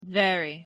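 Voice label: pronunciation "very", English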